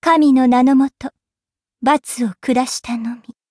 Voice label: victory, ja